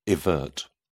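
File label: "evert", British English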